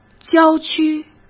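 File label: "郊区", Mandarin Chinese; chinese-flashcards; hsk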